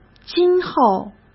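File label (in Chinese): "今后", Mandarin Chinese; hsk; chinese-flashcards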